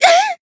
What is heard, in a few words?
damaged jp